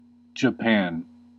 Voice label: "Japan", us